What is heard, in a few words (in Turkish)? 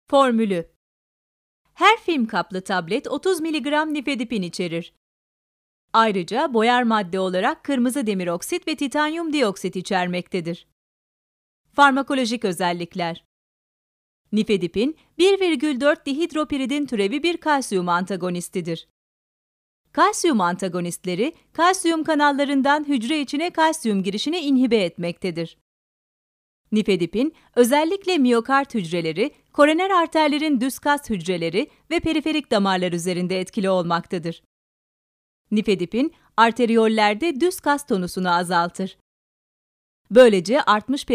KADIN TANITIM